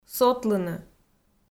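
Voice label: "сотлыны", Komi, female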